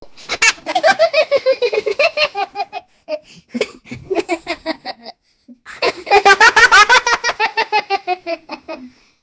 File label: 5 year old african, father; has 5 year old african